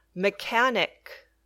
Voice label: "mechanic", English